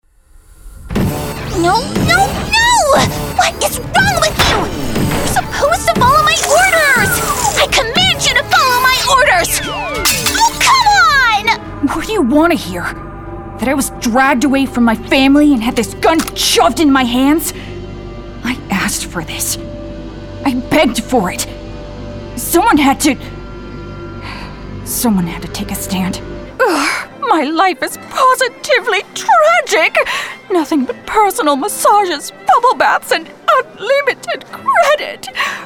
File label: vo character